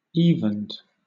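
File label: "evened", British English; pronunciations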